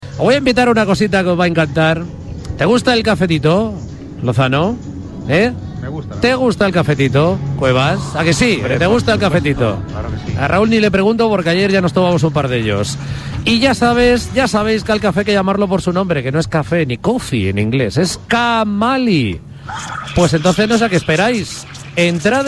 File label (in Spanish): anuncio radio